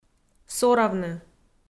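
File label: "соравны", Komi-Zyrian, female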